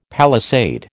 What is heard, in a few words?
"palisade", American